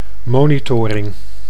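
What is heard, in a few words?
"monitoring", Dutch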